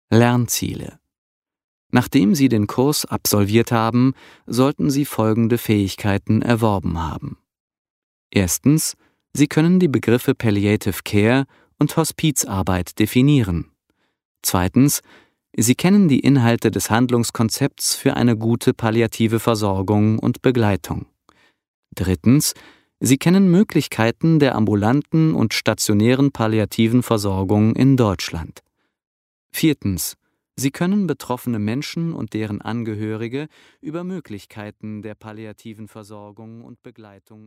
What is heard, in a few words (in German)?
E Learning; Hörbuch Krimi Der Tote im Wald